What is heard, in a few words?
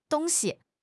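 "dong1 xi5", Mandarin Chinese; HSK1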